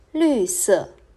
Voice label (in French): Vert Mandarin